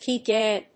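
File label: "FPGA", English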